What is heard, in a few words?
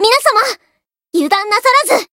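Voice encounter fear, Japanese; komachi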